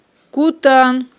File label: "ккуттан", Aghul